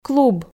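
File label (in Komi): "клуб", Komi, female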